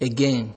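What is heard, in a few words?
"again", Nigerian Pidgin, male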